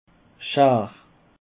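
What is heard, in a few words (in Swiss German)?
"schare", Alsatian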